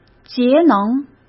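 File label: "节能", Mandarin Chinese; chinese-flashcards; hsk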